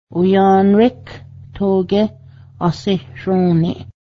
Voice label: it was nice what you had made, cayuga; oyrarektogyę́h asehsrǫ:niˀ